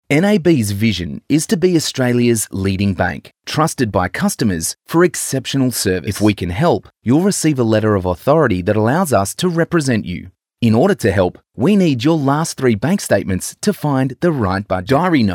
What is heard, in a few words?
Corporate